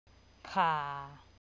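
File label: "ข่า", Thai, low tone; High/long vowels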